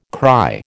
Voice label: "cry", American